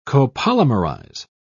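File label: "copolymerize", British English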